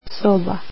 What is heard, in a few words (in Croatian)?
"room", croatian